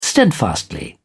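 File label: "steadfastly", British English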